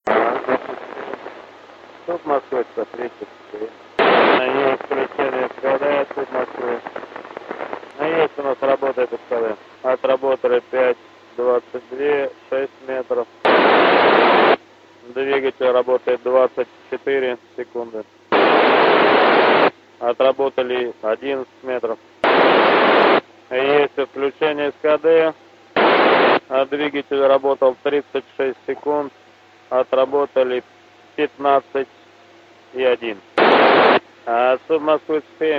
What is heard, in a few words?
Sojus TMA-13M 121 750MHz NFM